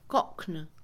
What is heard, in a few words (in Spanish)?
acostarse, Mixe, Mujer; INALI